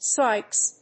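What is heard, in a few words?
"swipes", English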